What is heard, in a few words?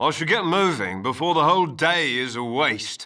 Male; baldurs1